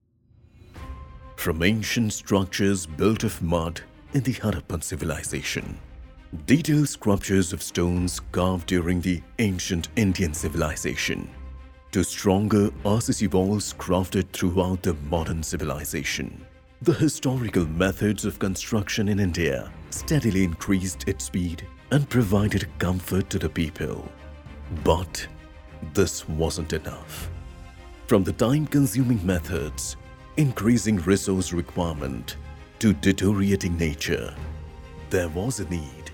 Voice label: Corporate, English